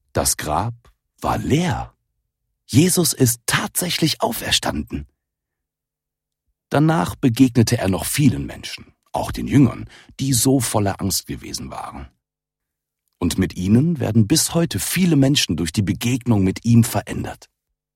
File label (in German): Erzaehler